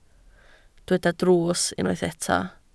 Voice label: "drws", Welsh